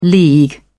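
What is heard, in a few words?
"league", English, British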